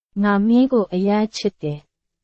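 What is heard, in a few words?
Burmese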